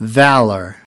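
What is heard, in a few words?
"valor", American English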